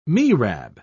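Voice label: "mihrab", British English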